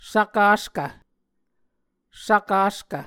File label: "svkaskv", Muscogee